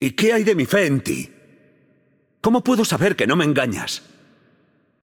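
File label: Spanish intro; VO; es ES; Yunara; bloom minigame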